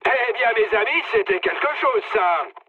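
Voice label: French trick mood high; War Thunder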